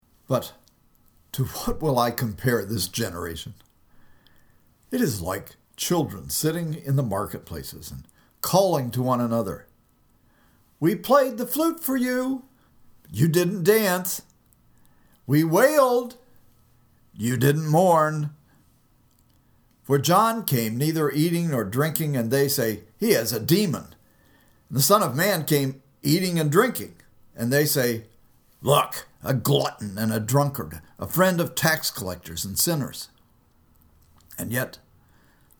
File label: storytelling